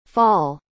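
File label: "Fall", English; otoño en inglés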